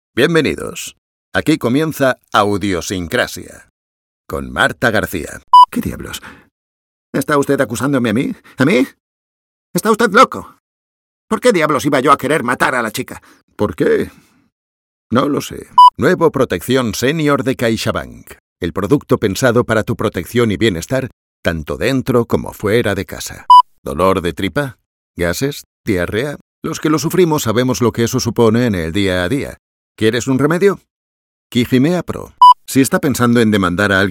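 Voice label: Spanish European, general; GoLocalise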